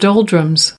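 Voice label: "doldrums", American English; AmericanBritish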